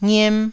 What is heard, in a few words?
"ngiem1", Taiwanese syllable